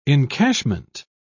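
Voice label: "encashment", British English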